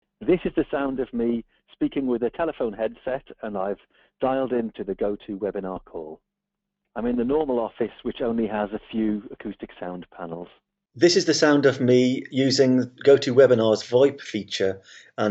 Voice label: microphone test